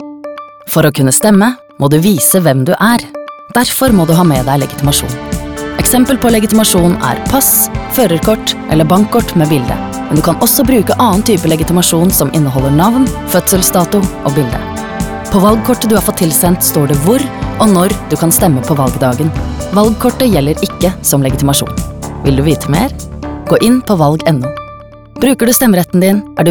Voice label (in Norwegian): VO Mix Radio; Legitimasjon; kommunevalg; valgportalen